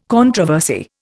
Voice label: "controversy", Indian